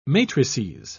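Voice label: "matrices", British English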